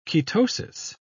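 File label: "ketosis", British English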